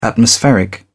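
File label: "atmospheric", English, uk British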